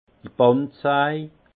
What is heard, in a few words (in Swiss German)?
"bandsaj", alsace; vin; scie à ruban